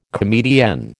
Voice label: "comedienne", American

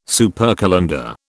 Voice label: "supercalender", British

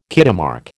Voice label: "Kitemark", American

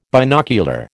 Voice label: "binocular", American

